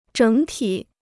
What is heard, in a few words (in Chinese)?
"整体", Chinese